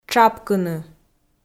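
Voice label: "тшапкыны", Komi, female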